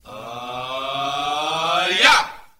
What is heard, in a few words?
"hiya", voice; ooh ahh